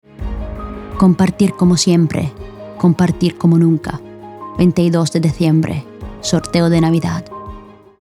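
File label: IT AccES, Italian accented Spanish; GoLocalise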